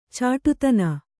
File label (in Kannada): "ಚಿಟ್ಟೆತಾನ", Kannada